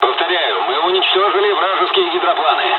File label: dialogs russian; War Thunder